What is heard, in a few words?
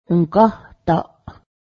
i am full, Cayuga; ǫgáhdaˀ